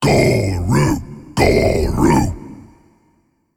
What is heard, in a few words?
Male Ancient Play